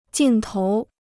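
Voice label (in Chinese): "镜头" Mandarin Chinese; jìng tóu; camera lens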